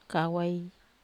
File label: "kawai", Wamesa